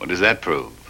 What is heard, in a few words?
what does that prove, male; questions